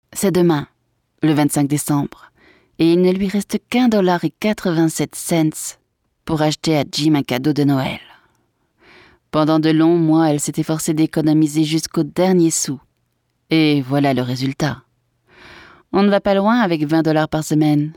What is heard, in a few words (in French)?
Audio livre, voix off femme; Cadeauxinutiles